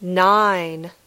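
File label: "nine", English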